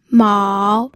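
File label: "mao", third tone, pinyin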